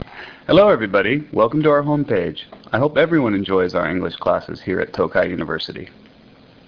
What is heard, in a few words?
english